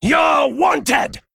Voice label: Female Worgen Attack

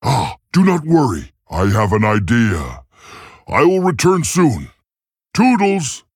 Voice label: Male Tauren In Game; Guff; If Guff Dealt Lethal

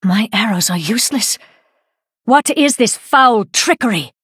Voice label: Female Blood Elf; In Game Player Plays Card

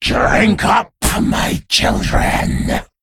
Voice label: Female Worgen Play

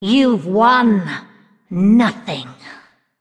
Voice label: Female Undead; Anduin

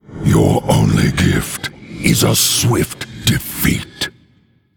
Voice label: Male Undead Emote Knockout; Baconshop

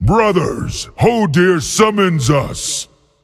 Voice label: Male Giant Play

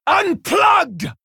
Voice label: Female Tauren Attack

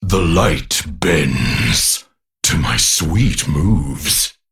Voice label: Male Crystal Golem

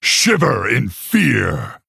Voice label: Male Orc Attack

